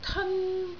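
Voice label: "teon1", Cantonese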